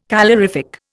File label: "calorific", Indian